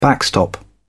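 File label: "backstop", British English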